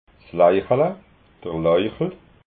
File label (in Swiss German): "leichala", alsace